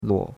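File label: "luo3", Chinese; Cours-de-Chinois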